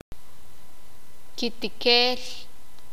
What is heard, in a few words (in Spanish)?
"a solas", sakapulteko